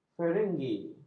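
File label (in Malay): "feringgi", Malay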